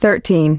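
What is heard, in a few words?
"thirteen", English; iOS 4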